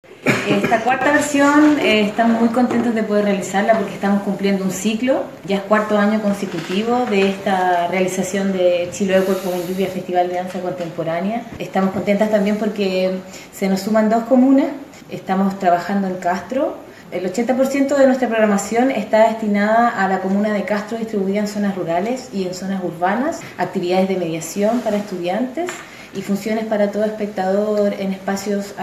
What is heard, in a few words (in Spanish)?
CUÑA; DIRECTORA DANZA